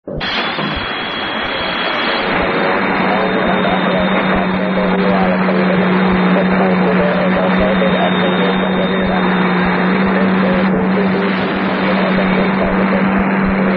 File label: usb